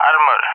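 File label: "armor", English, Russia; Target; DCS; Allied Flight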